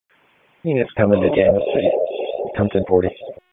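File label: Police Southwest District Dispatch; Raleigh; wake; p25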